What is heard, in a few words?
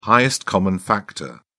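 "highest common factor", English, British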